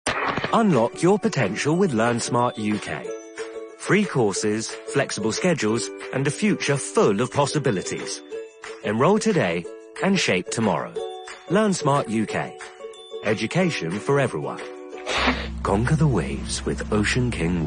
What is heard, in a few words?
Commercial reel, Playful, dynamic, adaptable